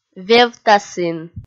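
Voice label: "вевтасін", Komi, female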